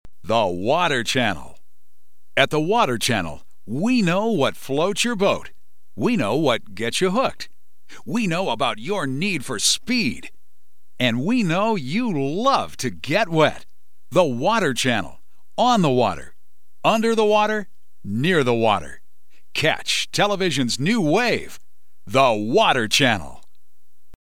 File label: TV Imaging; The Water Channel